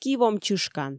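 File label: "ки-вом чышкан", Komi, female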